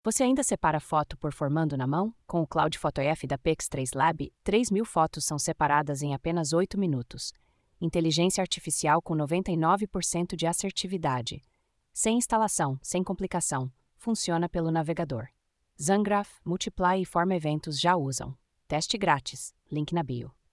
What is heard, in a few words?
narration; carousel instagram